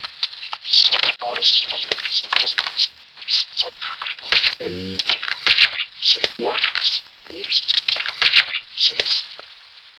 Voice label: robotic choppy voice

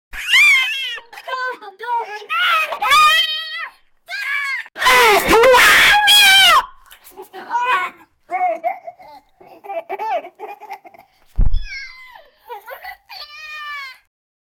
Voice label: a 18 year old young, play

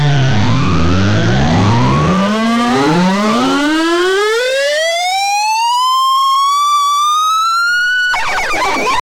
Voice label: a cartoonish female voice, uh oh

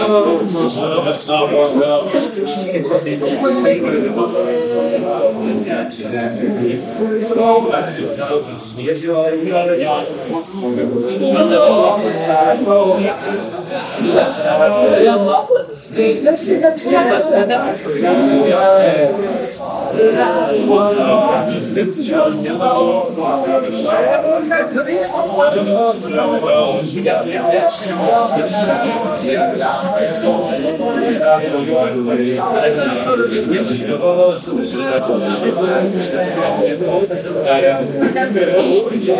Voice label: couple men in the tavern, clinking glasses

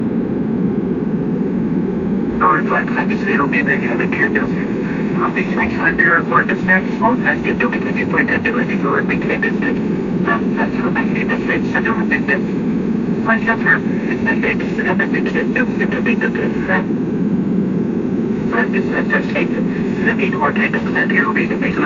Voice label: an old portugese air traf; human voice

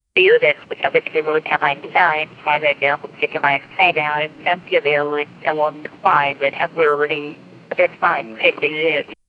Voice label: voiceover a women sound, phone

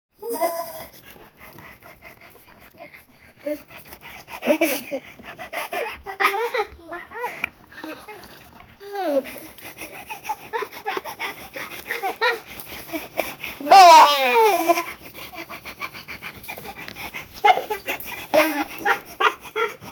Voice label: a 18 year old young

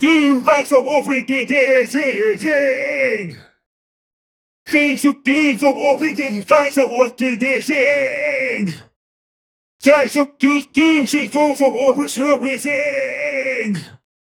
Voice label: cartoon angry rant loop, gibberish

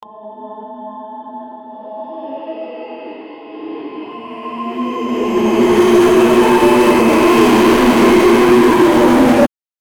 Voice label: a young 10 year old boy; child

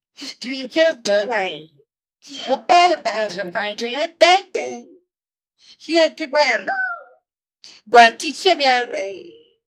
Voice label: eeerrmm david i really wa, uncertainty

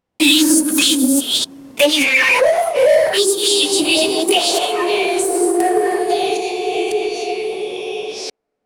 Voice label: distorted voices in paral, parallel dimension

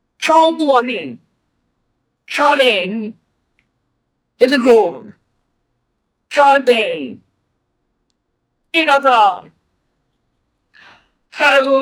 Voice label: very loud person who says

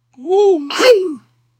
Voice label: "three two one go", announcer

